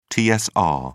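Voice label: "tsr", British English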